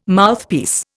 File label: "Mouthpiece", Indian